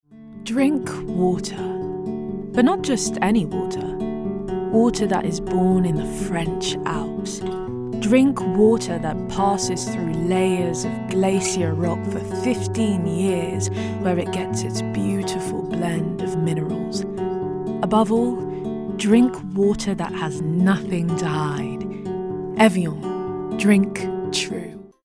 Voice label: Commercial; Evian